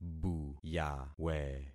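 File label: "buyawe", concatenated syllables; Affixing experiment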